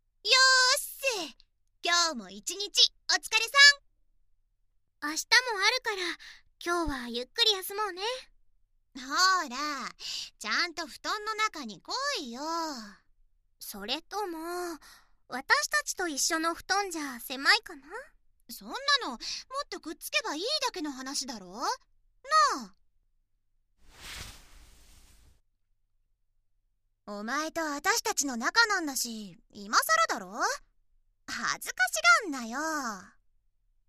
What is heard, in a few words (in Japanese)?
おやすみ添い寝ボイス, ASMR